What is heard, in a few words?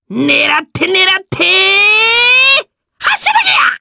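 voice, Japanese; Lakrak; kingsraid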